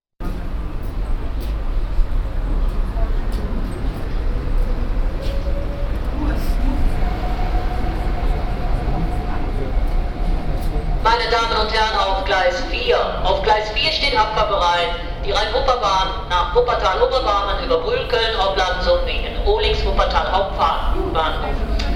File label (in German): zugansage; verkehr